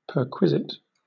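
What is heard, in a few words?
"perquisite", British English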